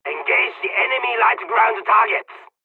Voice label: jpn ground attack; midway; dialogs turkish; War Thunder

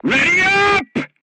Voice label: voice message load, crew tanks vietnamese

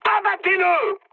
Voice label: attack position mood high, speech fr; War Thunder

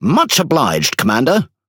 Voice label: Speech Quick Commands Thank You Ship, EN GB; World Of Warships

